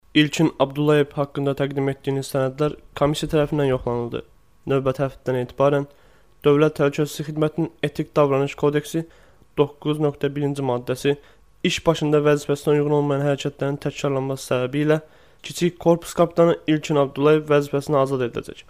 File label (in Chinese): 土耳其男声